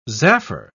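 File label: "zaffre", British English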